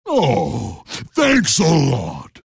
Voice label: tiny thanks; dota